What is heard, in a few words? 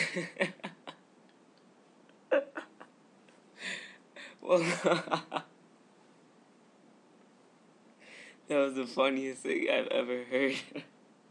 nyc accent